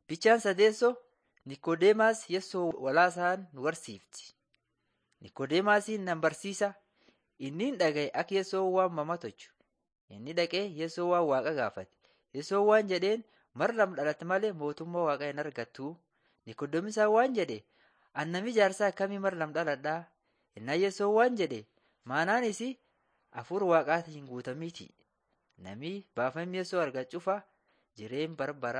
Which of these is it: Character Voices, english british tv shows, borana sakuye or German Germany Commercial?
borana sakuye